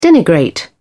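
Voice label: "denigrate", British English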